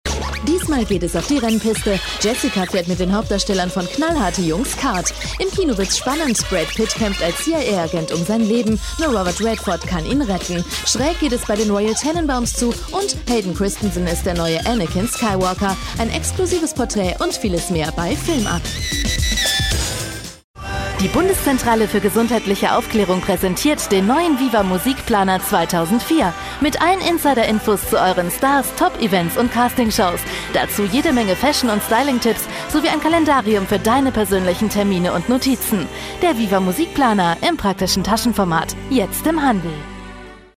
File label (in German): Werbung Freundlich